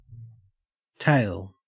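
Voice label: "tail", Australian English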